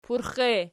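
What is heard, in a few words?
"purx" ergative, Archi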